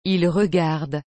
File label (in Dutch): "ils regardent", frans; regarder